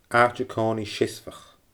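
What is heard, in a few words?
"aaite coomhnaidh seasmhach", Scottish Gaelic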